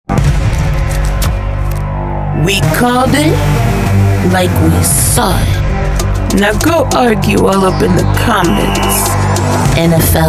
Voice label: Nfl outro